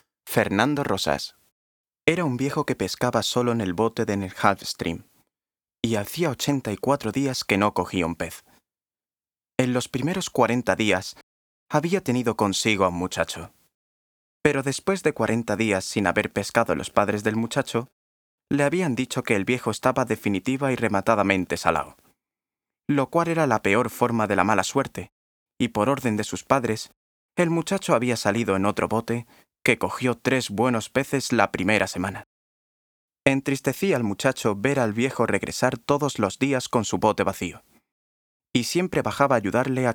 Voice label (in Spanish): Audiolibro